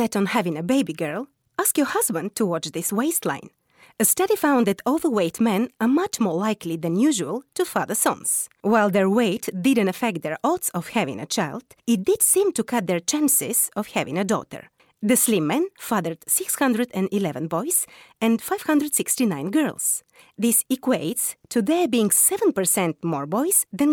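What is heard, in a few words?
Bulgarian English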